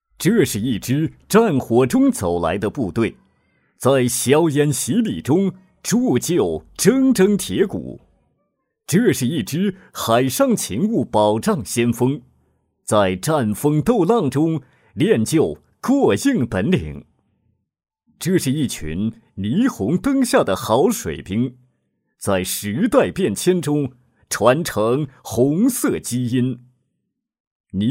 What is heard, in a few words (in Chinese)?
男 专题; 勤务保障基地